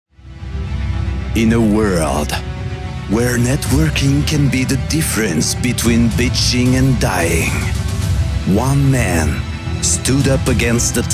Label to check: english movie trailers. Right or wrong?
Right